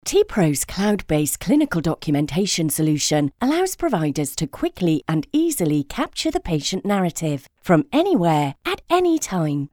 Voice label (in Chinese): 外籍英女 欢快活力 广告